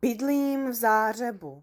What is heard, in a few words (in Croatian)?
češki; POP UP Zagreb